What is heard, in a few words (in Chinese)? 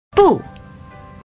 "不", Chinese